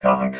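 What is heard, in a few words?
"conc", robot voice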